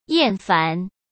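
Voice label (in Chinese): "厌烦", Mandarin Chinese; hsk; chinese-flashcards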